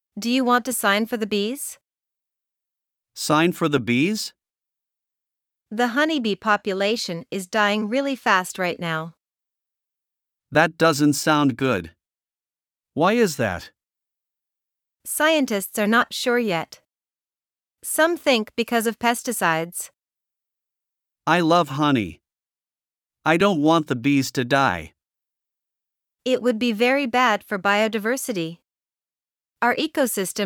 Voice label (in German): Dialog; Artenvielfalt